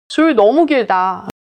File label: neutral